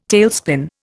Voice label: "tailspin", Indian